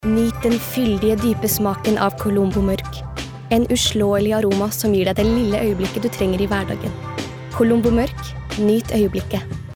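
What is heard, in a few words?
Norwegian soft sell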